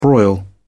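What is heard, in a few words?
"broil", British English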